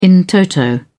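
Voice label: "in toto", British English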